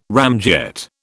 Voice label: "ramjet", British

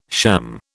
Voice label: "sham", British

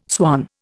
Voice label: "swan", Indian

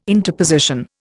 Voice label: "interposition", Indian

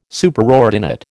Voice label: "Superordinate", American

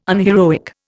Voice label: "unheroic", Indian

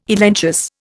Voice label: "elenchus", Indian

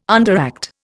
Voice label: "underact", Indian

